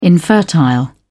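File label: "infertile", British English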